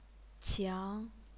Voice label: "qiang2", chinese pinyin